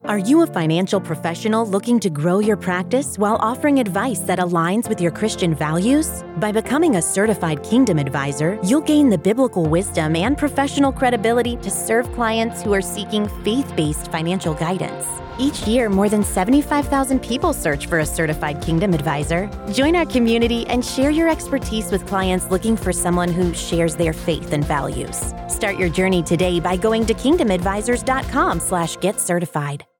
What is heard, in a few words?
Spot